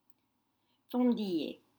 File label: "fendille", French; cracked